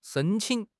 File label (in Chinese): "shen1 qing3", 汉语口语; 汉语口语新时代-初级下